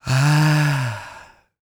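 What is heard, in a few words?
female spoken, R&B Dance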